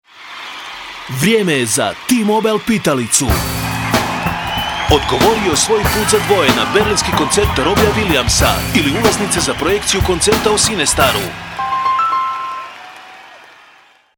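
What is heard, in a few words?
croatian male commercial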